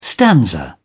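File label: "stanza", British English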